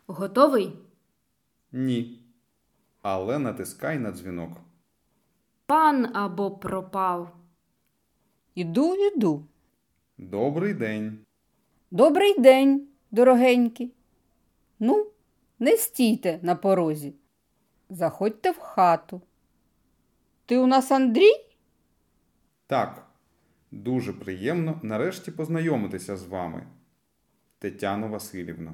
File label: Dialogue Slow